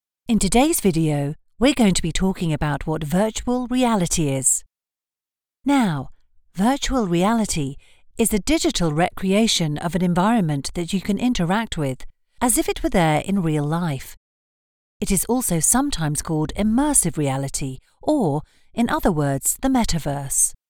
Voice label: E learning VOICE